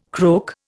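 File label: "croak", Indian English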